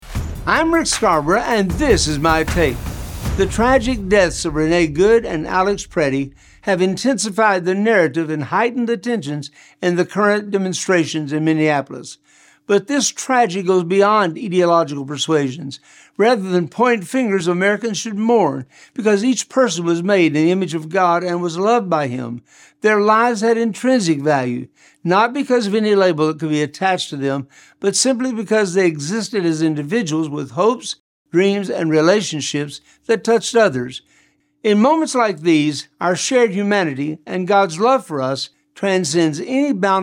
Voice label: radio spot; Minneapolis Deaths